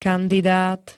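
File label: "kandidát", Slovak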